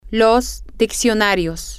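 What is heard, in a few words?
the dictionaries, Spanish, female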